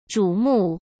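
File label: "瞩目", Mandarin Chinese; hsk; chinese-flashcards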